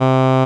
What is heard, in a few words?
But Area, Vowels; Width Mapped; Raw